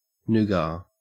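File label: "nougat", Australian English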